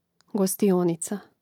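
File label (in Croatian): "gostionica", Hrvatski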